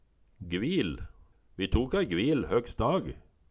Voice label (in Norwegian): gvil, Numedalsmål; Vi tok ei gvil høkstdag